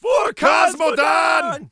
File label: Mortar team warcry en